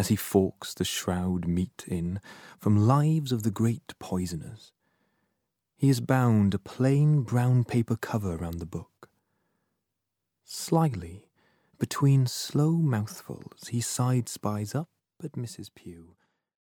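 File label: English Welsh male, welsh english voice over talent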